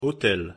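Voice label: pronunciation "hotel", French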